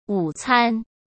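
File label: "午餐", Mandarin Chinese; chinese-flashcards; hsk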